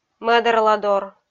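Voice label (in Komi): "мӧдарладор", Komi, female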